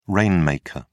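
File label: "rainmaker", British English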